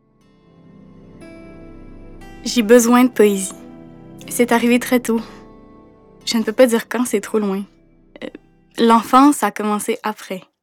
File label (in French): Narration; La vie habitable